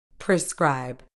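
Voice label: "prescribe", medical English, British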